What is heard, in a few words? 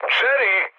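Serbian enemy detected mood high; speech; War Thunder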